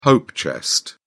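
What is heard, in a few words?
"hope chest", British English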